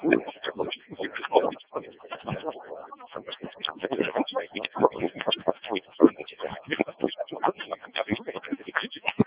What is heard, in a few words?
decoded speech, 7177KHz